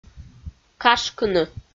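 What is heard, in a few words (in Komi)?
"кашкыны", Komi, female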